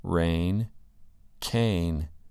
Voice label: "rain" "cane"

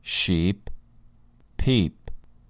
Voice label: "sheep" "peep"

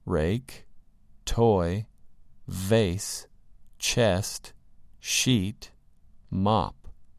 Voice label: "rake" "toy" "vase" "chest" "sheet"

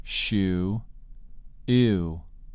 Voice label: "shoe" "ewe"

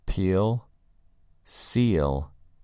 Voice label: "peel" "seal"

